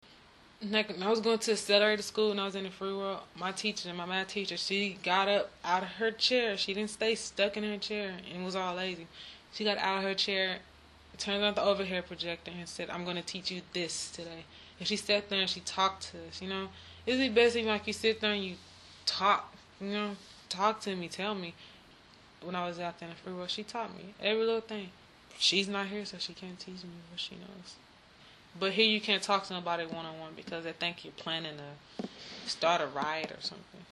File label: girls voices